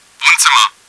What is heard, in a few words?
living room, german; csco; csgo; bot